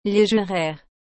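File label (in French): "legerere", French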